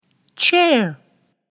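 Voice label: "chair", English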